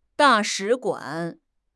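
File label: "da4 shi3 guan3", Mandarin Chinese; HSK; HSK4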